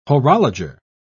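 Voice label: "horologer", British English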